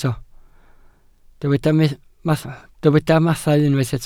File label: "mathau", Welsh